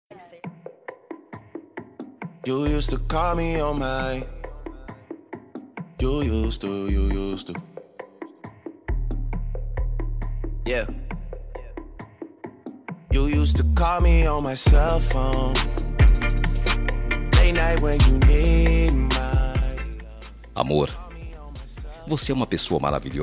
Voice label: ANIV NAMORADA M, telemensagem

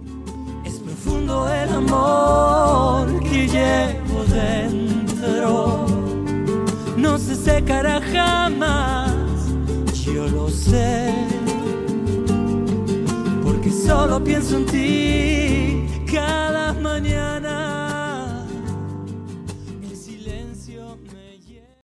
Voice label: Aniversario Neta Duas Vozes

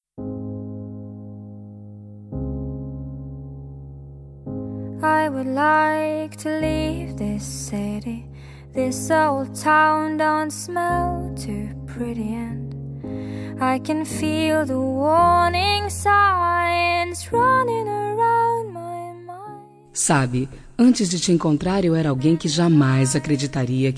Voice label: Romantica Feminino, telemensagem

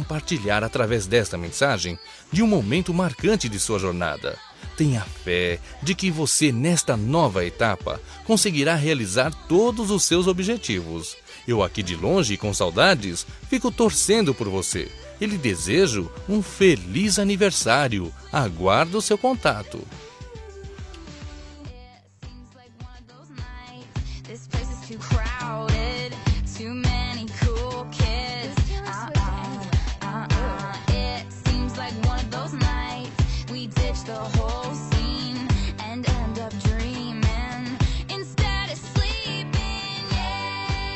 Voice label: Aniversario Amiga Distante Masculino, telemensagem

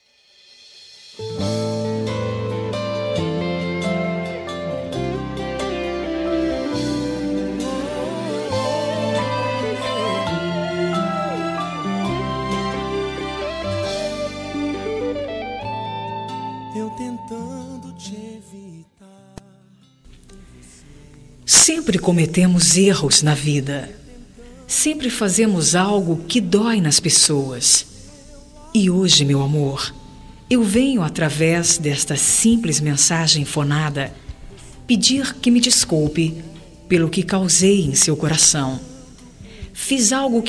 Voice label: Desculpa Romantica Feminino, Telemensagem

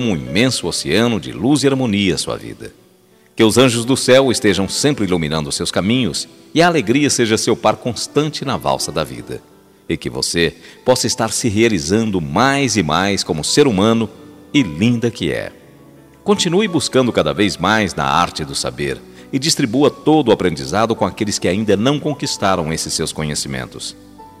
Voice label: ANIV PARA AVo MASC

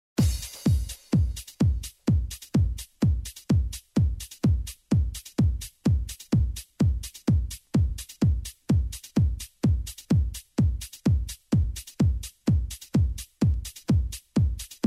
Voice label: OTIMISMO GOZACAO, telemensagem

